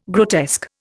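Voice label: "grotesque", Indian English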